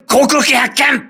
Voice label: voice message air alert, crew ships japanese